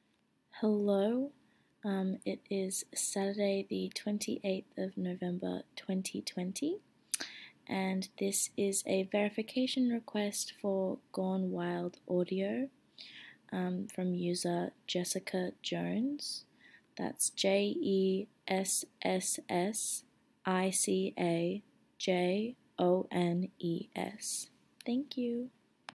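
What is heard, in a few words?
Verification, female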